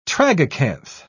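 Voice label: "tragacanth", British English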